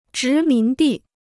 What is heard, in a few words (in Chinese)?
"殖民地", Chinese